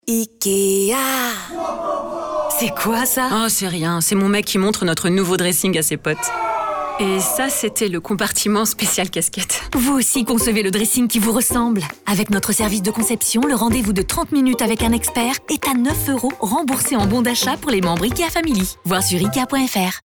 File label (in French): Radio, voix officielle pubs radio; Ikea; Conception Dressing